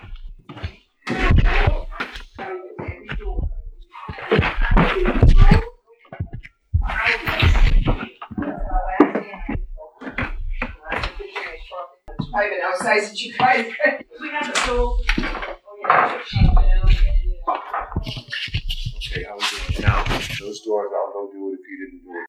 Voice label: Childs voice with all present; Harrisville; CHILD'S VOICE ON STAIRS; LONGER VERSION WITH ALL PRESENT